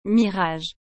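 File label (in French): "mirage", French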